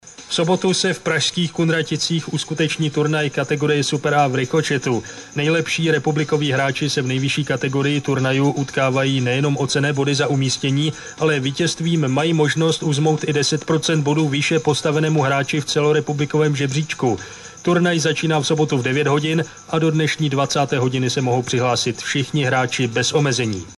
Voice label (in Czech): radio beat pozvanka; kunratice